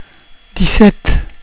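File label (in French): "dix-sept", French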